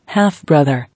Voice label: "Half-brother", English

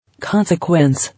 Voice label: "Consequence", English

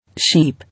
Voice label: "Sheep", English

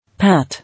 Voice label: "Pat", English

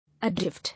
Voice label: "Adrift", English

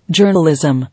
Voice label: "Journalism", English

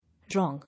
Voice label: "Wrong", English

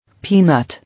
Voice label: "Peanut", English

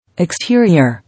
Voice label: "Exterior", English

